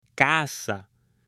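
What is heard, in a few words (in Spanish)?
"CASA", Spanish, male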